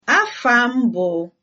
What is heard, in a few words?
my name is, igbo